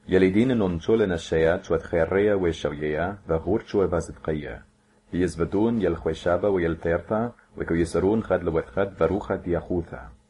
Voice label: aramaic

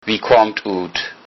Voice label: i'm from, low saxon

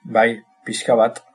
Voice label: yes a little, basque

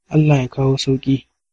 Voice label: get well soon hausa; Allah ya kawo sauki

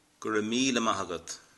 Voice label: thanks, irish

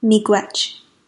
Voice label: thanks, ojibwe

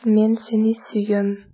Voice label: i love you, kyrgyz

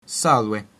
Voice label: "hello", Latin; Salve

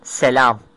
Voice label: hello, turkish

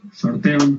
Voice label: good luck, basque

